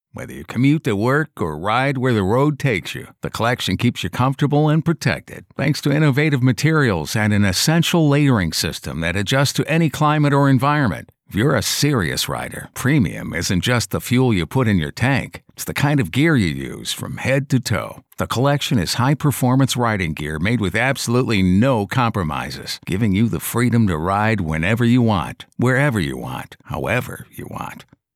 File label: Narration